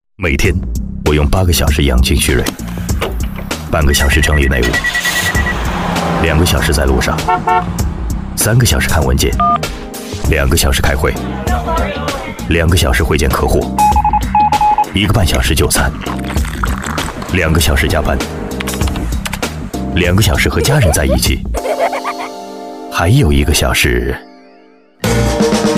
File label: voices CHINESE male adult MANDARIN; KER-SOUND